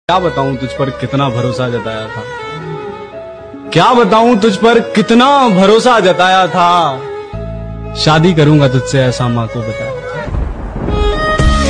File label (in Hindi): hindi sad shayari